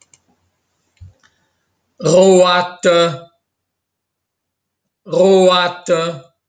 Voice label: Roate Cimbro; parlante di Luserna Lusern/Luserna, 53 anni: [ʀoatə]; 'rosso'; VinKo